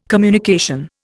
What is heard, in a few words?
"communication", Indian